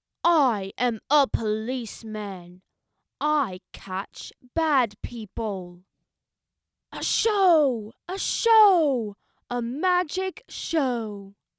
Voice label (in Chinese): 男童声, 激情振奋